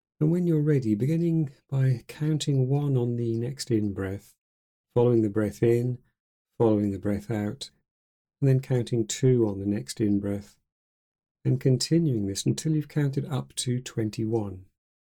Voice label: Breaths Meditation; COUNTING; Oura; 21 Breaths